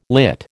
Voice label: "lit", American